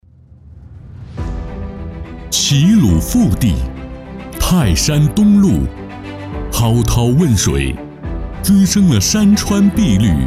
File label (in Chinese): 大气宣传